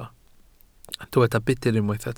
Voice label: "budr", Welsh